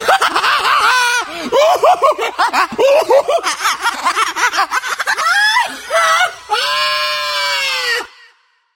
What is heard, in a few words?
rage medic; missingteam